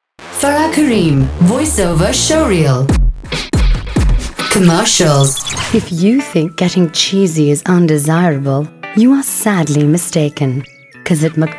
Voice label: female, Pakistani Urdu, English with slight Pakistani accent compilation